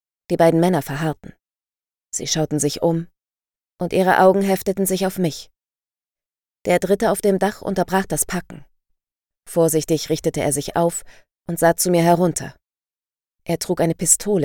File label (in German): Krimi, sprecherin